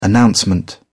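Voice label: "announcement", British English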